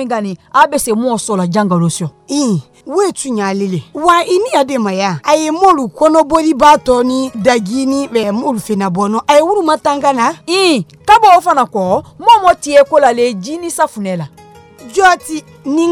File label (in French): spot ebola pour femmes konia